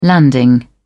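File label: "landing", British English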